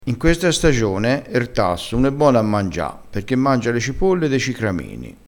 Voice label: "cipolla", crespinese

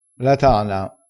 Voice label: "tana", crespinese

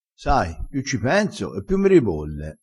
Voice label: "ribolli", crespinese

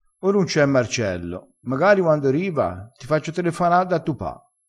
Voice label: "uando", vocabolario dialettale

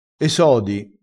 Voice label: "sodi", crespinese

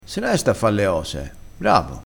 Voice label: "lesto", vocabolario dialettale

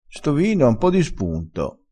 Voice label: "spunto", crespinese

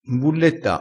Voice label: "imbulletta", crespinese

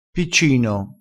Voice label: "piccino", crespinese

